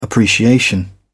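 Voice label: "appreciation", British English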